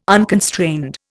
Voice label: "unconstrained", Indian